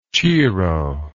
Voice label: "cheero", English